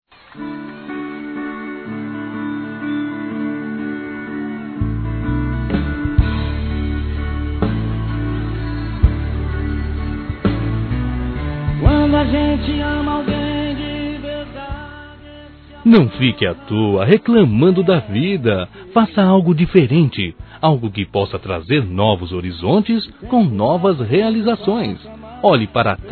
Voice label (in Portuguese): Reflexão Masculino; Telemensagens; Otimismo